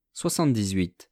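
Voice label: "soixante-dix-huit", French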